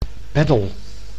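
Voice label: "peddel", Dutch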